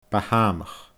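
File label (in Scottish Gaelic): "Bathamach", Scottish Gaelic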